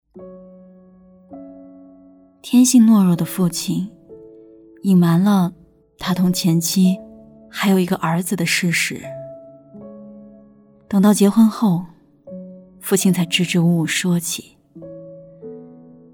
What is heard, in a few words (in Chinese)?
故事 样音